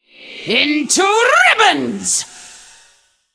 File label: Female Sentient Weapon Attack